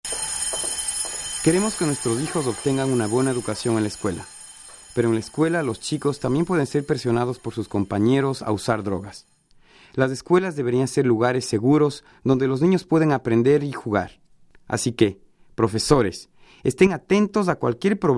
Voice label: Spanish